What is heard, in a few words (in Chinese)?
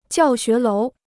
"教学楼", Chinese